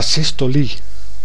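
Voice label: "asystolie", Dutch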